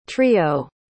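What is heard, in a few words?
"trillo", English word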